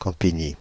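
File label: "Paris Campigny", French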